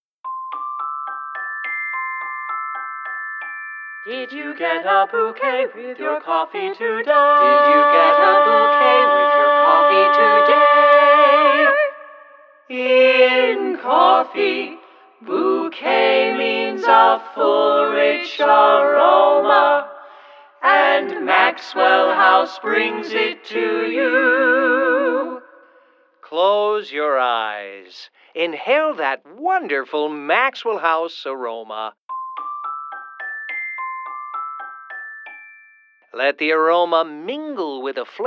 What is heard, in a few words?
Commercial; Maxwell House